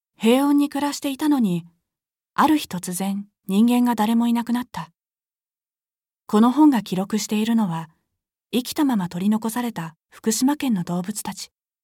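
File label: narration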